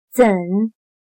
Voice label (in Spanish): "怎", Chino